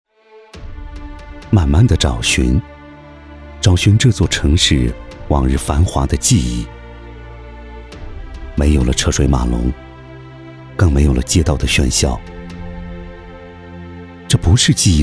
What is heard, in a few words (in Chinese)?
男 宣传片 抗疫; 徐州抗疫